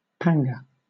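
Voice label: "panga", British English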